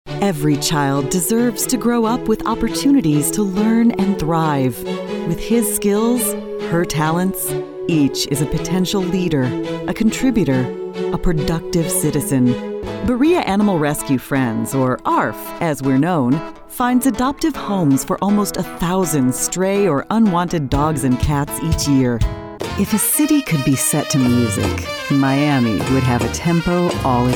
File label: Narration